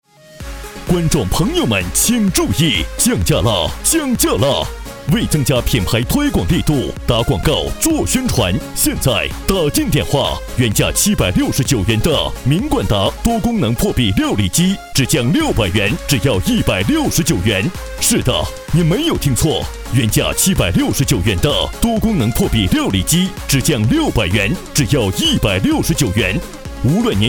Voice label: 男 电购 破壁料理机

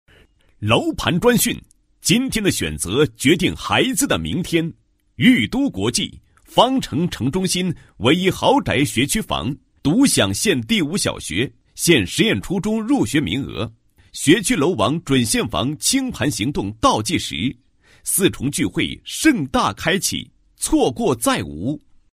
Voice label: 地产类广告, 国语男声配音; 高音经济型; 楼盘专讯裕都国际

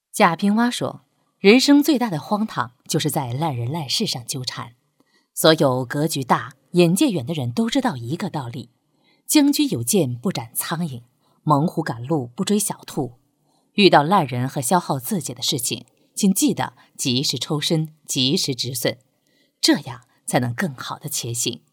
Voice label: 女 人物专题

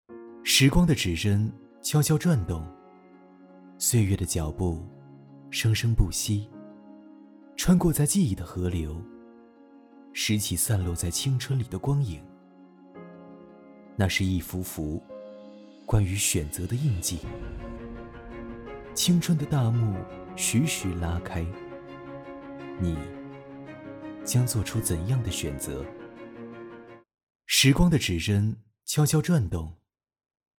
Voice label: 男 广告; 青春

